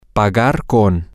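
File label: Spanish, to pay with, male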